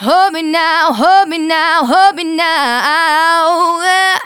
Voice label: Phrase Female; Vengeance Samples; Phrases

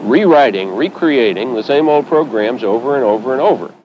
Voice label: LoFi Phrases, LoFi Vocals; Vengeance Samples

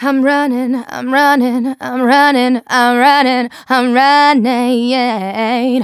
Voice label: Phrase Female, Go up; Vengeance Samples; Phrases

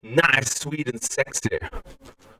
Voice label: male fx vox, vocal house, f#; PS-28 Vocal House Samples

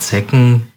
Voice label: LoFi Words, LoFi Vocals

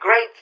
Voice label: LoFi Words, LoFi Vocals

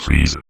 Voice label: Vocoder Words; Vengeance Samples

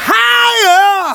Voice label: Phrase Male; Vengeance Samples

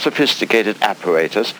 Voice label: LoFi Phrases, LoFi Vocals; Vengeance Samples

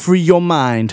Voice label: Phrase Male Rap; Vengeance Samples

